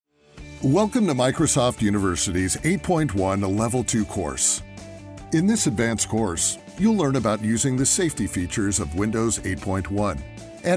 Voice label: e-learning; demo

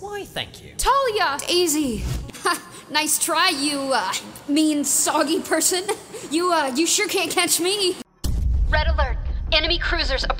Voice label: character demo

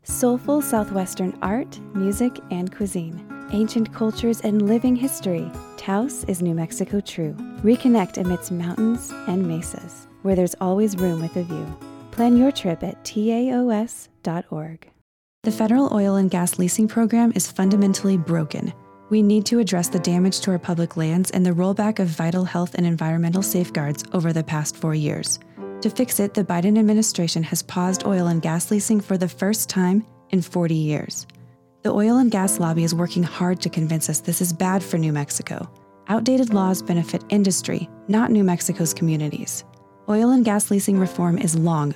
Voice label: commercial vo reel

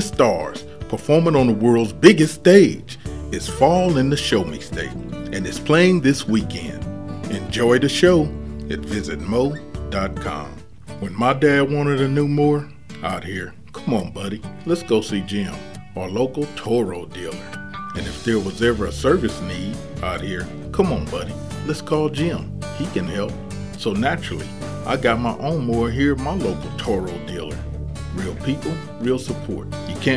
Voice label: voice over demo with music

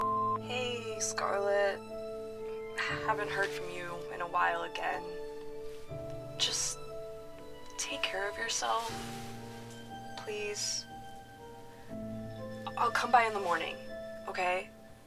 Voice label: voice over

